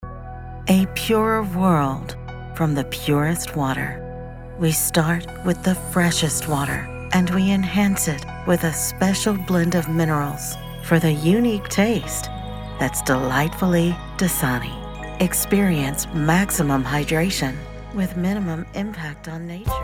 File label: Commerical